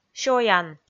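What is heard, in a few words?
"сёян", Komi, female; kpv audio dict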